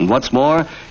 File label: announcer; and what's more